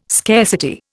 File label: "scarcity", Indian